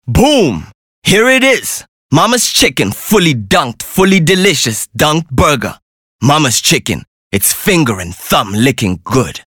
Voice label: English bright

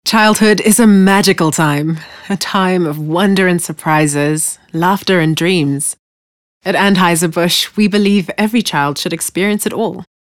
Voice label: English Bright

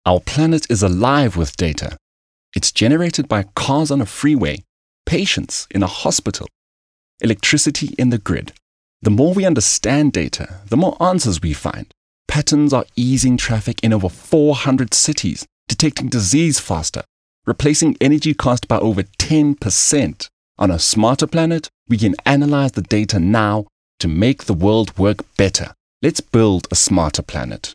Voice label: English Corporate

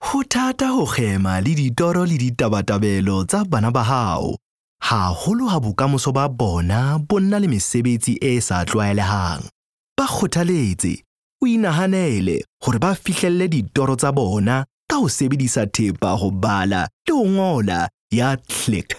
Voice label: Sesotho Conversational; OSM Talent

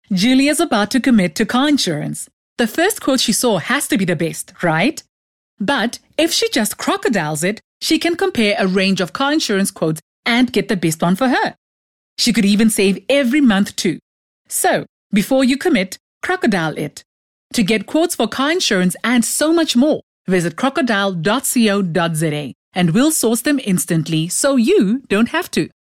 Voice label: English Conversational; OSM Talent

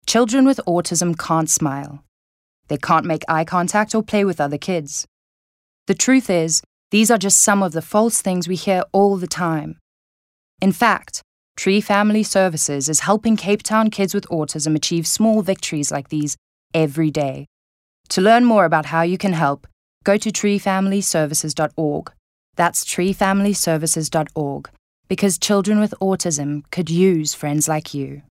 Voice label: English Corporate

